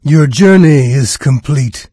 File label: journey complete, narrator; Fate The Cursed King; en-US